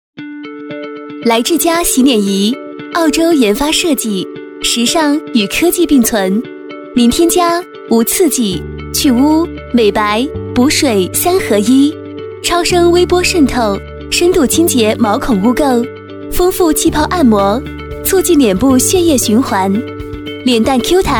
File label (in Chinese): 女 广告 洗脸仪; 徕智家